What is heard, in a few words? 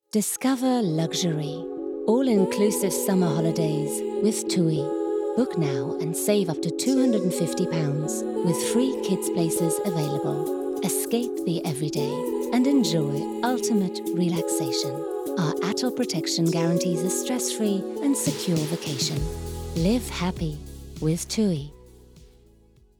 female english and german english with undetectable accent; commercial; tui